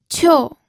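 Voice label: "qiu", Mandarin Chinese, fourth tone; pinyin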